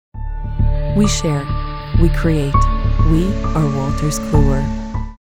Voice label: english international corporate videos